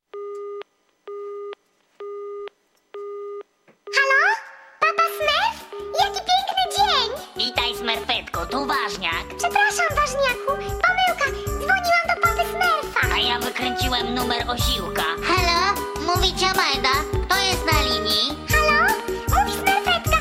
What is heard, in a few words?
smurfs talking